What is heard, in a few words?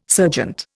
"serjeant", Indian